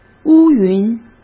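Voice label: "乌云", Mandarin Chinese; chinese flashcards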